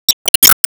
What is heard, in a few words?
Emo Glad